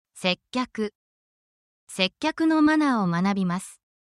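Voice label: Japanese word, essential Japanese phrases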